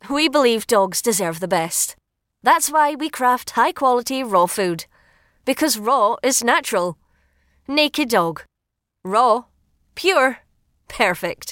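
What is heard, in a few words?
scottish raw dog food, Youthful Scottish Female Voice Actor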